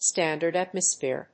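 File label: "Standard Atmosphere", English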